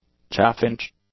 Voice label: "Chaffinch", English; nouns